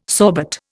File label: "sorbet", Indian